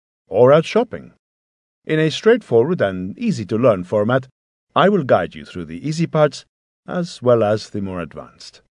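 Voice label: Spanish English, male, light accent; accent of spain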